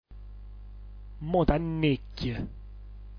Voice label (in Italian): "motanicchj", dialetto giulianova